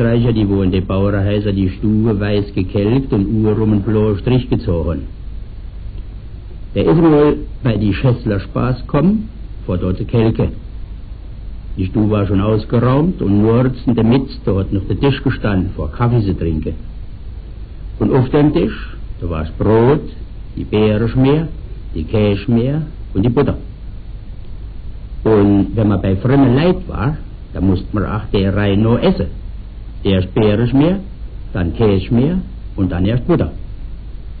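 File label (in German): de knause pitt, O-Ton Hunsrück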